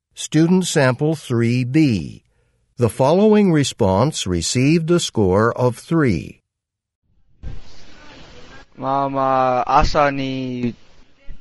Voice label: japanese convo